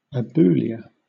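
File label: "aboulia" British English; pronunciation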